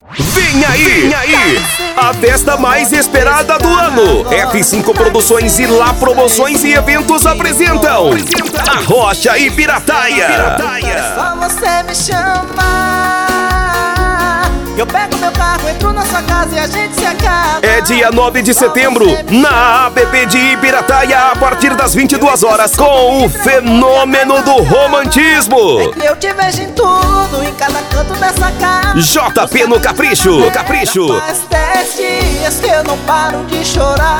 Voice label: chamada arrocha; ibirataia

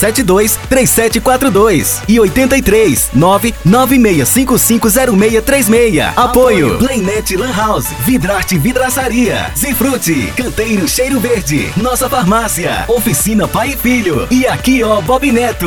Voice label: VOZ IMPACTO GRAVE vinheta para academia de musculacao muay thai

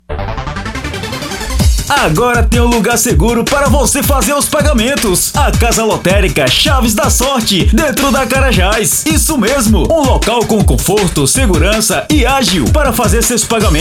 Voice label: locutor off; caixa

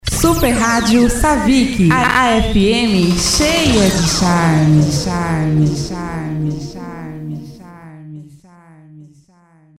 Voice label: vinhetas fm

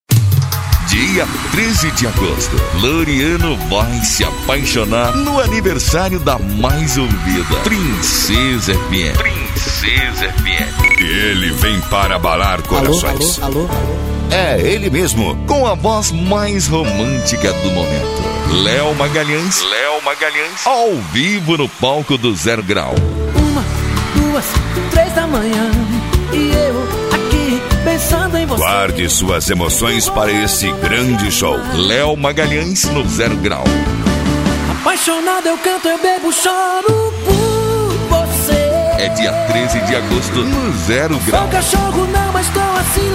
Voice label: locutor spot

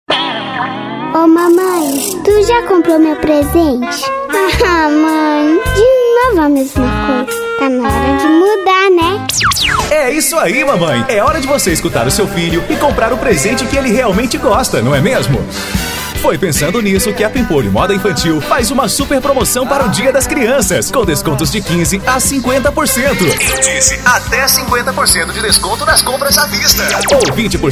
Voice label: voz de crianca adulto; studio l2

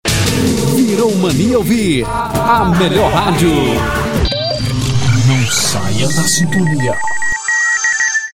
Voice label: vinhetas, voz; virou mania ouvir a melhor radio nao sai da sintonia

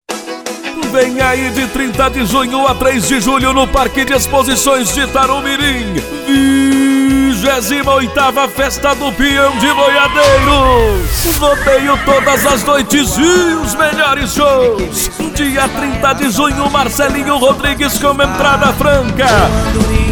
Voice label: spot chamada; taru

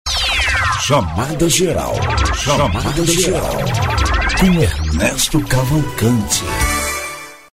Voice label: vinheta voz

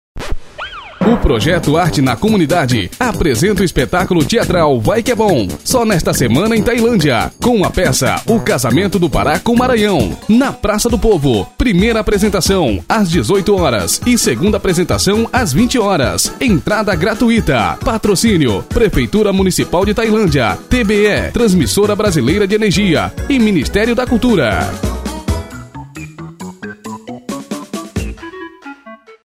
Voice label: locutor; progeto arte

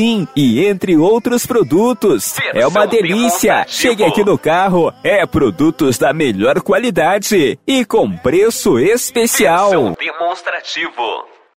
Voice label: vinheta pamonha

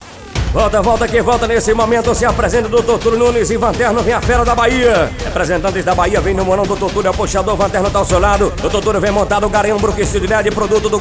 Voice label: locutor

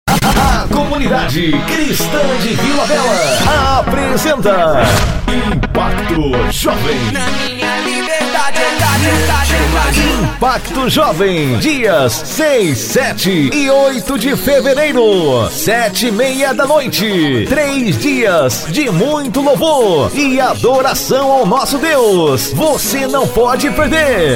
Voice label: spot; impacto jovem

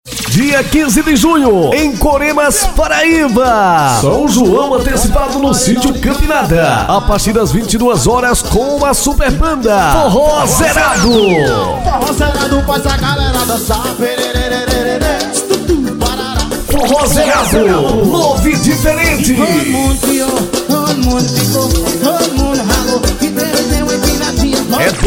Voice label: locutor spot festa forro

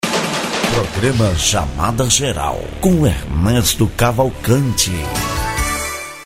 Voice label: vinheta voz

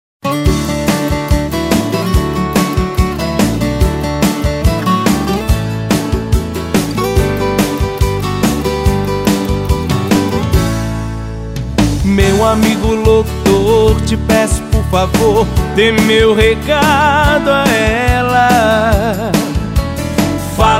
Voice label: amigo locutor

